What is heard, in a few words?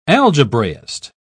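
"algebraist", British English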